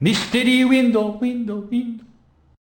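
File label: voiceclip mysterious wind Japanese; Flowery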